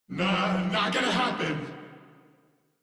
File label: Player Spotted, Battle Barks; Warframe; Corpus VIP B